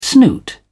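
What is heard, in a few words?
"snoot", British English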